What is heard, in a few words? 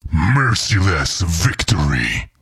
Merciless Victory, Announcer; liblast